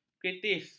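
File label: "kritis", Malay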